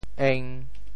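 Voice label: "eng1", Teochew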